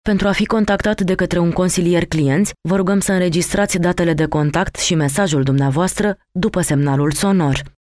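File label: Female Romanian IVR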